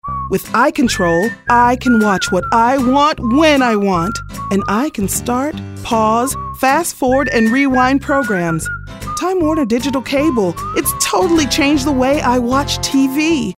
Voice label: perky